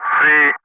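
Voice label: "3", calm, English; Ka-50; Wingman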